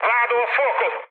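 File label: Italian on fire mood med, speech; War Thunder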